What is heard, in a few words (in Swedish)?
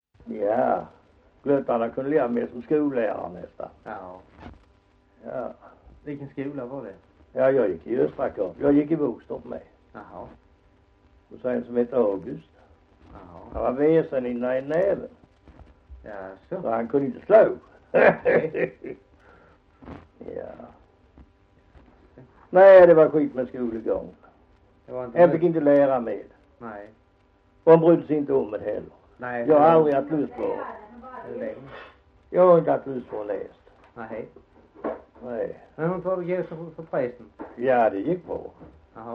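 Hasslöv Halland, dialekter svenska sagor; Folkminnen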